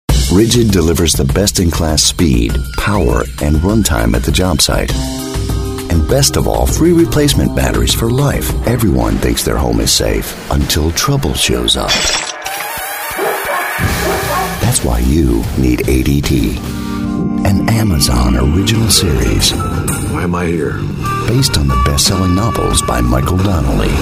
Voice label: Commercial Voice-Over

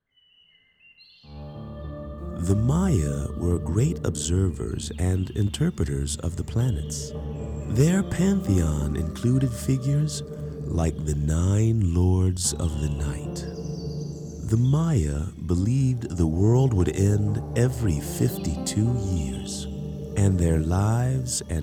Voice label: Narration VO

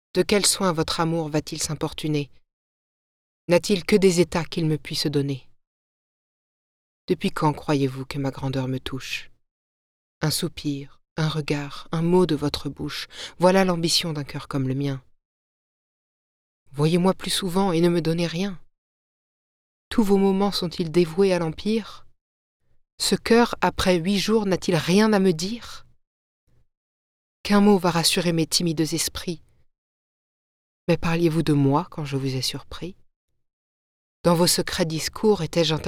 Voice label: French Classical

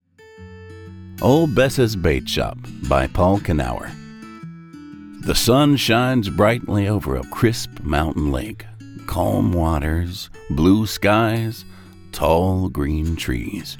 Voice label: Intro Narration; Ole Bess Bait Shop

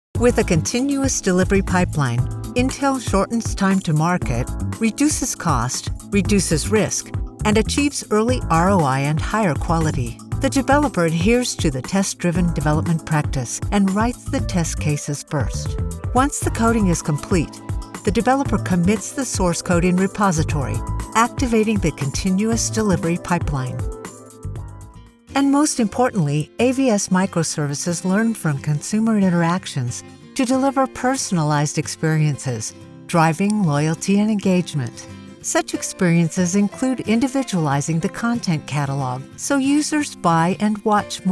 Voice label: Corporate Narration

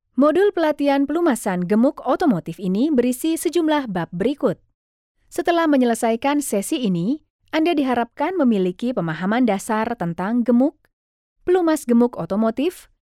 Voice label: NARRATOR

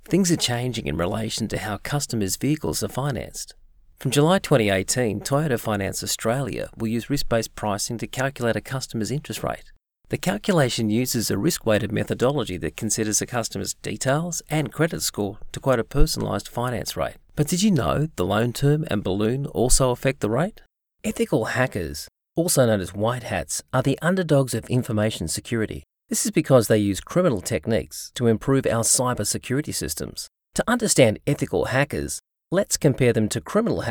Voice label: DRY; TVR

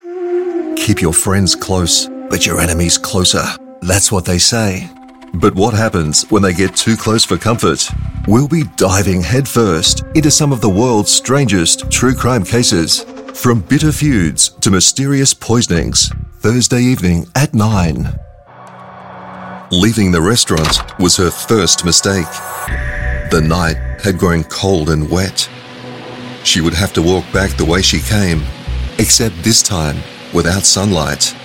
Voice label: Movie Trailers

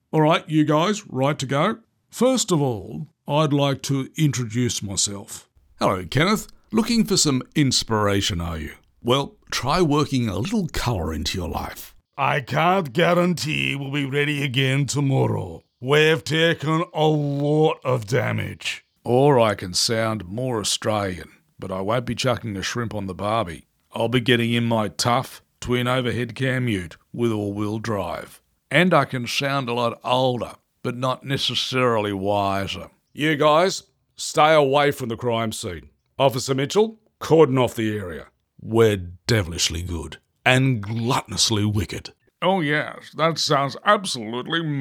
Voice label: Character

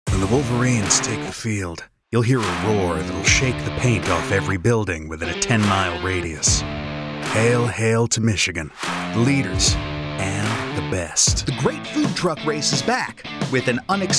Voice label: Promo

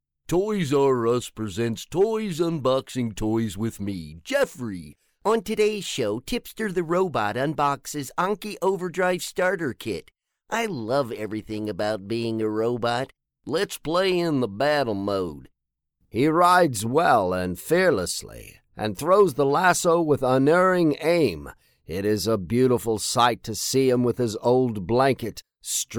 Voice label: Animation Characters

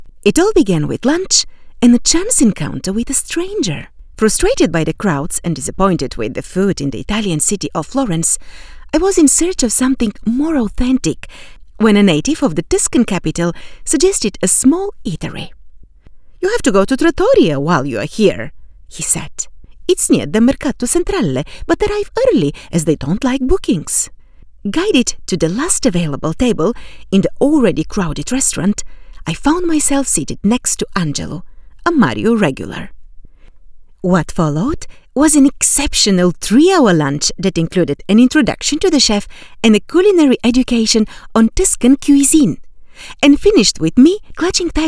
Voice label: Audiobooks narration English

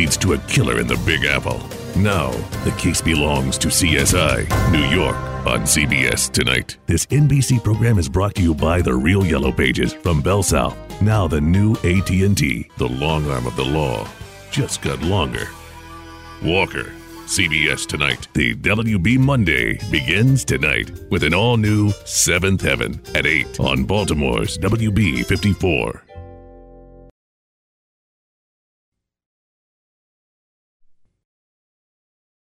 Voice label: TV Trailers Billboards

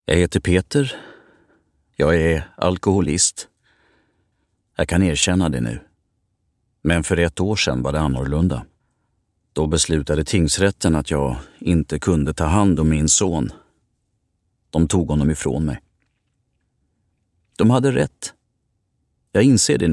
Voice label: in Swedish Real person

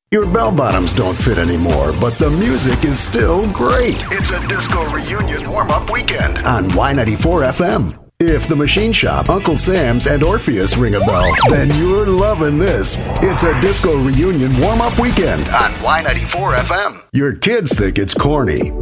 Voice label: SPECIALTY RADIO IMAGING AND BUMPERS

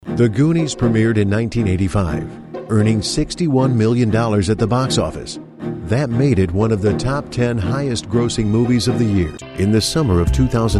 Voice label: Documentary

